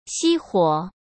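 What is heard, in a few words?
"熄火", Mandarin Chinese; chinese-flashcards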